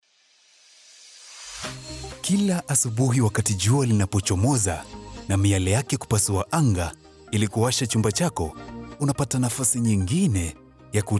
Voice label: swahili commercial; Kenya; Swahili English (African); strong, warm, baritone male voice